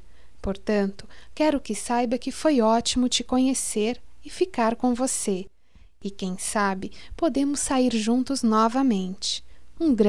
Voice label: Encontro agradável - F

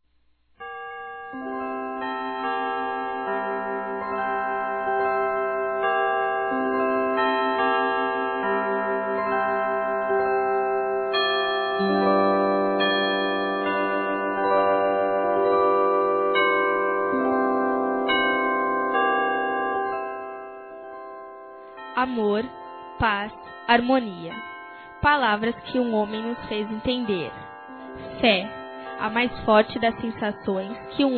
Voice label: NATAL NEUTRO ADOLESCENTE F; Telemensagens; Natal e Ano novo; fem

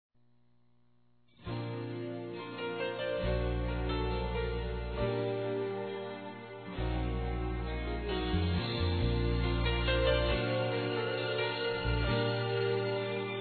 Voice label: Esposa Masculino, Telemensagens Românticas

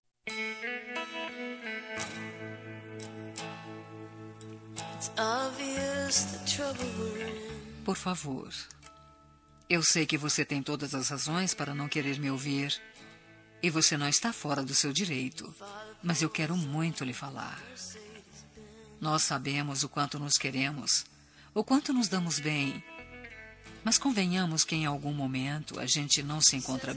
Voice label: ROM DESCULPA FEM, Telemensagens; Desculpas; Telemensagens 2017

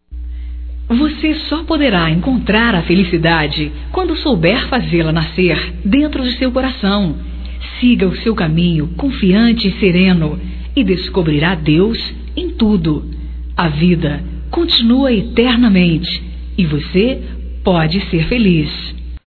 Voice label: Otimismo Geral Feminino, Telemensagens, Só Voz; Telemensagens 2017

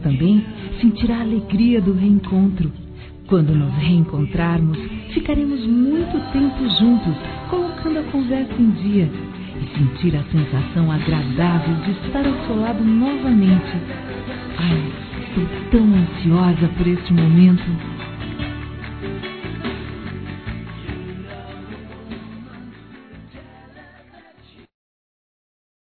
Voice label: Saudade Romântica Feminino; Telemensagens; Saudades

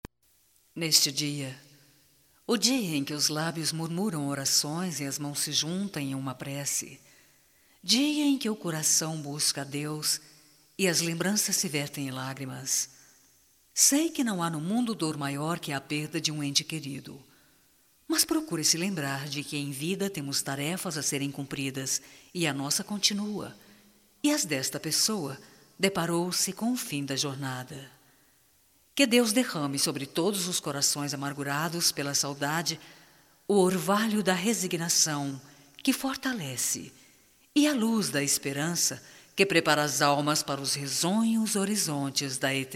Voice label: CONFORTO PESAMES, fem, Voz; Telemensagens 2017

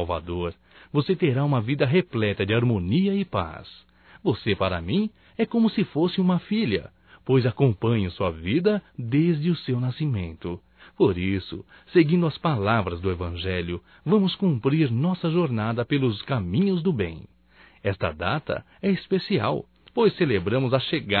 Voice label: NATAL ANO NOVO SOBRINHA (M); Telemensagens 2017

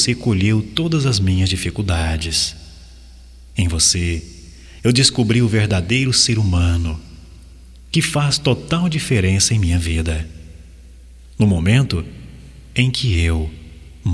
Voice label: AGRAD PELA AJUDA, Só Voz, masc; Telemensagens; Telemensagens 2017

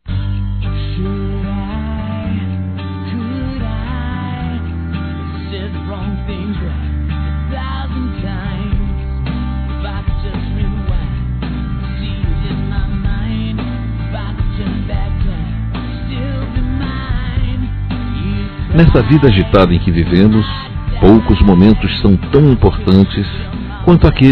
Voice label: Convite para Almoçar Masculino; Telemensagens; Convites e Agradecimentos; Telemensagens 2017

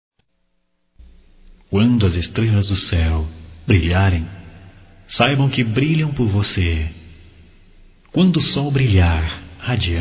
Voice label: Masculno; Evangélica Geral

